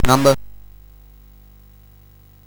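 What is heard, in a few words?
Bouncy Balls speech, MOS 8580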